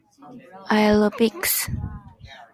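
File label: "aerobics", Japanese, female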